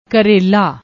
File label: "krela", Punjabi; ਕਰੇਲਾ